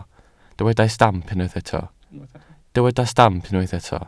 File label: "stamp", welsh